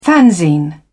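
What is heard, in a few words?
"fanzine", British English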